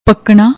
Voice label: "pakna", Punjabi

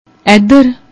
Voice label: "aedhar", Punjabi; ਐਧਰ

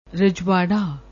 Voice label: "rajvara", Punjabi

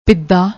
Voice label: "pidda", Punjabi

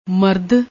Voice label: "marad", Punjabi; ਮਰਦ

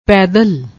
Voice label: "paidal", Punjabi

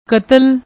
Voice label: "ktl", Punjabi; ਕਤਲ

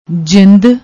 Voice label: "jind", Punjabi

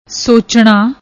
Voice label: "ਸੋਚਣਾ", Punjabi

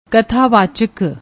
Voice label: "kthavachk", Punjabi; ਕਥਾਵਾਚਕ

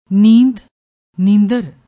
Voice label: "neand", Punjabi

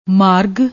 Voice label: "maarag", Punjabi; ਮਾਰਗ

